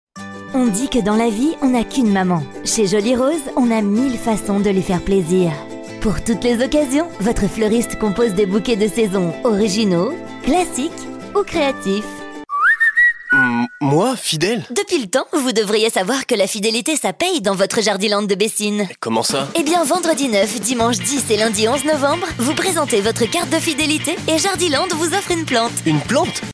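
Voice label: French, female, commercial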